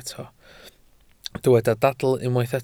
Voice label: "dadl" Welsh

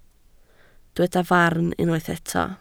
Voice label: "farn" Welsh

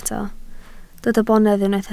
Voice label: "bonedd", Welsh

